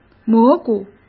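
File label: "蘑菇", Mandarin Chinese; chinese flashcards; hsk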